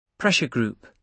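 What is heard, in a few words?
"pressure group", British English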